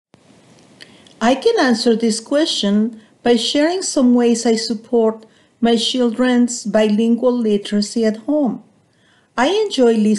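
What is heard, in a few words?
English Speaking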